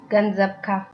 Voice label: you're welcome, tigrinya

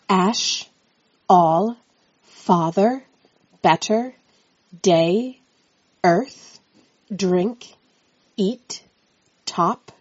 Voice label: vowels, English

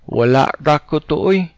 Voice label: youre welcome, cebuano

